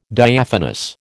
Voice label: "Diaphanous", American

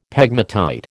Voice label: "pegmatite", American

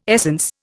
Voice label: "essence", Indian

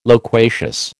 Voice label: "loquacious", American

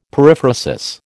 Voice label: "periphrasis", American

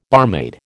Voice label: "barmaid", American

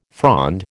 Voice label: "frond", American

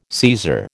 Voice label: "Caesar", American

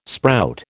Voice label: "sprout", American

